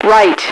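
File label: "right", female radio voice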